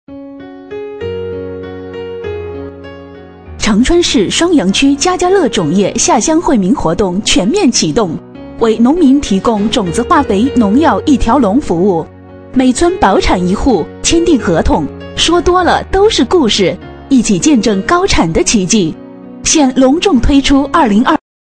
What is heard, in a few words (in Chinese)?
女 抒情 种业广告